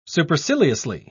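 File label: "superciliously", English, uk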